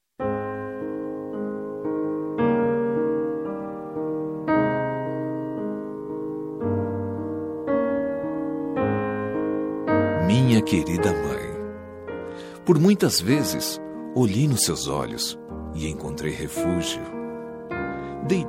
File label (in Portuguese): Feliz Ano Novo pra Mae, M